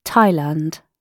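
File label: "thailand" British English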